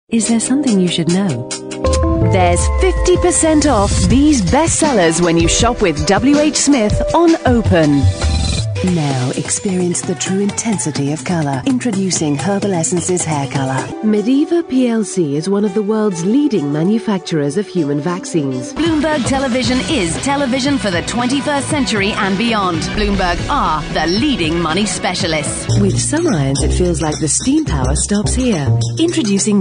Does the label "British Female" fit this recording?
Yes